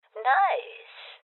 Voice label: Voices Loot Collect Female Old

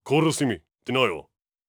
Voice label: angry

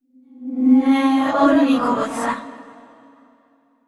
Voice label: VO thinking; yamiko; aragami

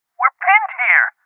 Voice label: Voices Heavy Losses Male

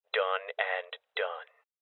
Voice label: Voices Confirmation Male Young

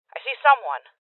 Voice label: Voices Enemy Spotted Female

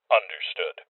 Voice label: Confirmation Male

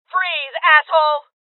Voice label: Voices Freeze Female

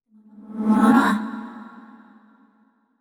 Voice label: neutral short; yamiko

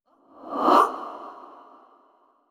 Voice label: curious short; yamiko

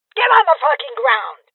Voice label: Order To Surrender Female Old; rebel cops 2019 gamerip

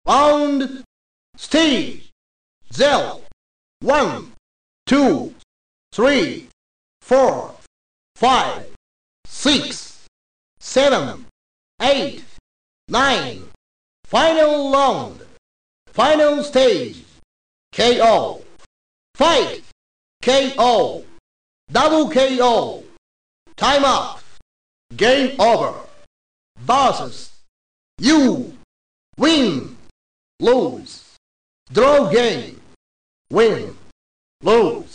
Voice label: Narrator Voice; breakers revenge

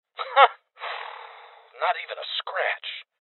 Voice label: Voices Armor Shot Male; rebel cops 2019 gamerip

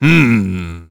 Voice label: Warrior emotion thinking

